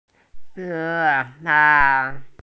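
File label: "mo2fang3", Mandarin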